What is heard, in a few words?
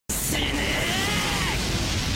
yelling die; bakugou